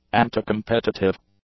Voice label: "anticompetitive", English; adjectives